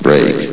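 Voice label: "break", male synthesized voice; vox; source engine; hl1; video games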